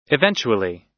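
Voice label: "eventually", American English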